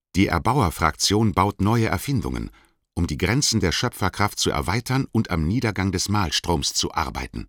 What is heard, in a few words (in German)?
German; Tut faction recruiting; aronwk collection; ndaudio